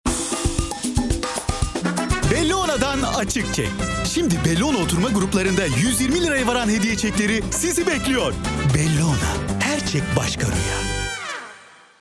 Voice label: Kampanya, kurumsal imaj

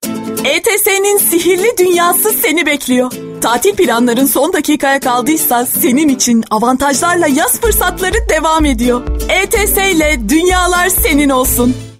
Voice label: enerjik tempolu, seslendirme

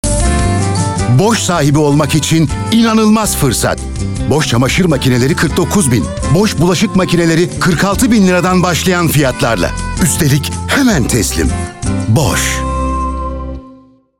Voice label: dinamik; seslendirme

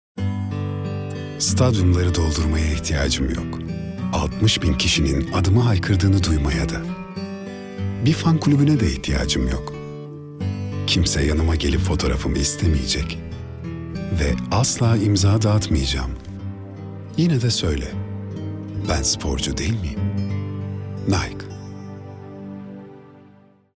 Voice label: sicak samimi

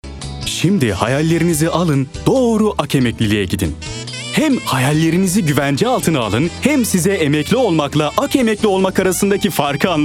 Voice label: sicak samimi

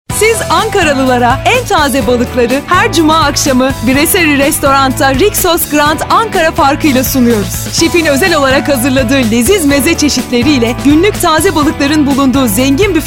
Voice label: Dinamik

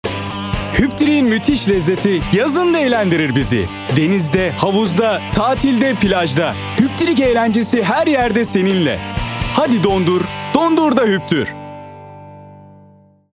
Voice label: kampanya tempolu; seslendirme

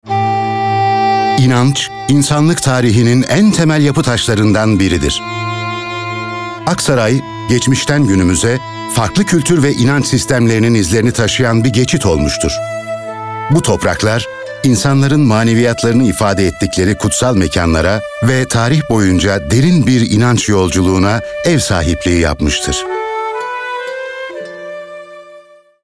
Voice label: SAKİN MOTİVE

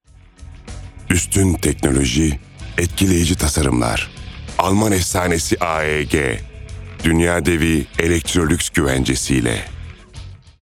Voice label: kurumsal imaj, seslendirme

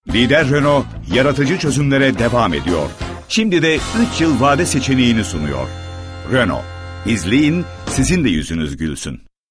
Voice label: guclu otoriter; seslendirme

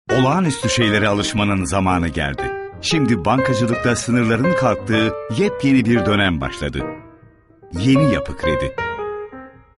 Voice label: kurumsal imaj

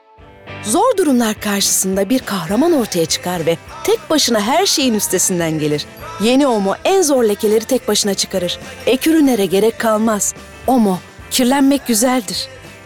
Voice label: enerjik esprili

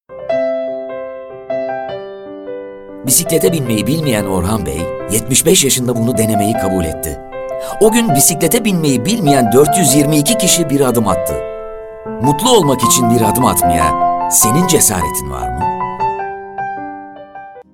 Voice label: duygusal motive; seslendirme

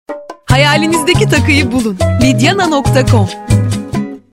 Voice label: Dinamik; enerjik tempolu

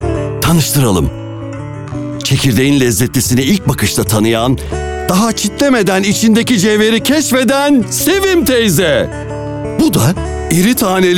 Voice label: enerjik esprili, seslendirme

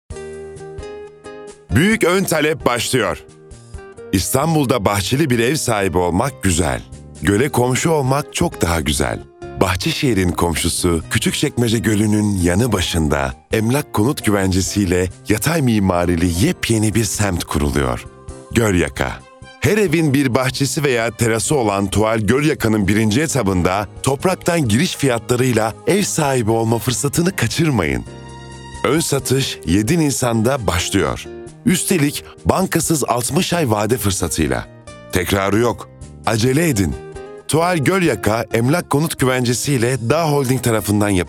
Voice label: enerjik tempolu, seslendirme

